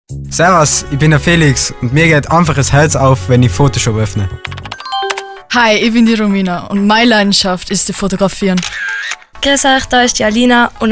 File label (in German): Werbespot